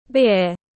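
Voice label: "beer", English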